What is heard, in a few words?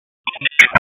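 Raleigh Police Northeast District Tactical, p25; wake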